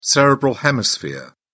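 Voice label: "cerebral hemisphere", British English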